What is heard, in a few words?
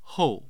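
"hou", Mandarin Chinese, tone 4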